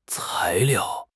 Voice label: "cai2 liao4", 汉语口语; 汉语口语新时代-初级下

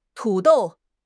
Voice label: "tu3 dou4", 汉语; 汉语口语新时代-初级下

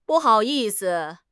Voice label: "bu4 hao3 yi4 si5", 汉语口语; 汉语口语新时代-初级下

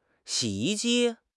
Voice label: "xi3 yi1 ji1", 汉语口语; 汉语口语新时代-初级下

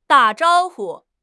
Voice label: "da3 zhao1 hu5", 汉语口语; 汉语口语新时代-初级下

